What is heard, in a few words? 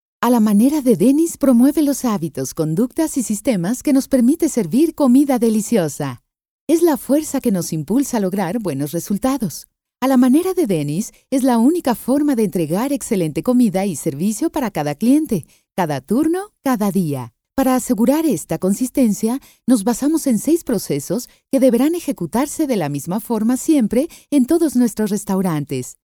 Elearning